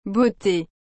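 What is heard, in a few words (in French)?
"beaute", French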